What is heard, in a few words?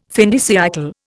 "fin de siÊcle", Indian